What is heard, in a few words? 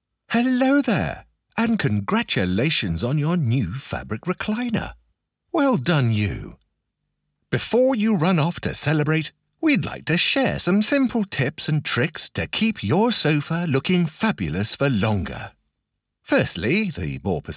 Furniture Explainer